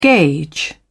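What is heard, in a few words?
"gage", British English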